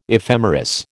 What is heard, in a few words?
"ephemeris", American